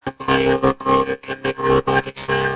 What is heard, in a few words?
robot, vocoder